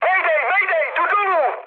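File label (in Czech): Czech aircraft destroyed, mood medium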